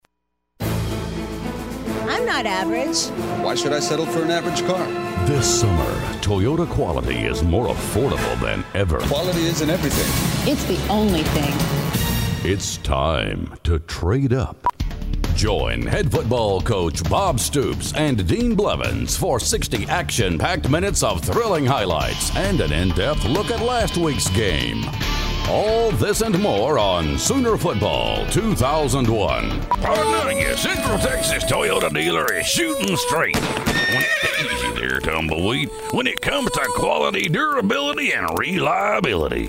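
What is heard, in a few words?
attitude, radio commercial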